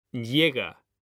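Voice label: "llega", Spanish, male; mekanta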